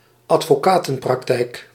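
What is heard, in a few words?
"advocatenpraktijk", Dutch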